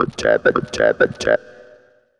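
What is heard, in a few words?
effects talk, Funky Vocals; USB Soundscan vol.18; AKAI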